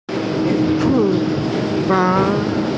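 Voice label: "chu2fang2", Mandarin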